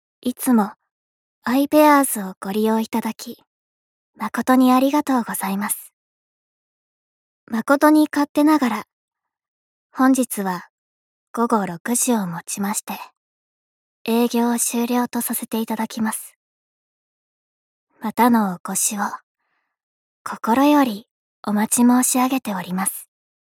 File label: narrator